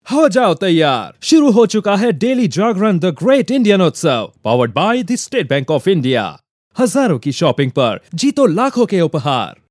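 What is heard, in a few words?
radio ad Hindi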